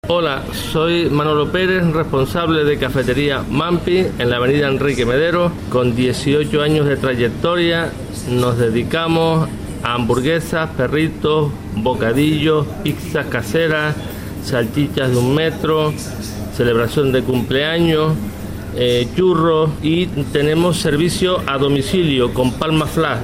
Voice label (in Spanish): TESTIMONIO; HAMBURGUESERIA MAMPI